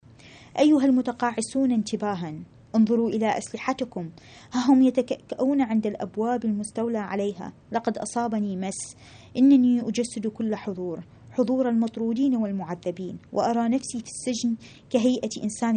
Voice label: arabic